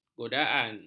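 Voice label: "godaan", Malay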